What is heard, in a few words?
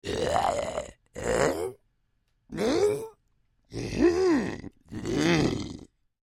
Creature Troll Speak Intrigued, Monster; Monsters (Sound Effects:Horror); Big Room Sound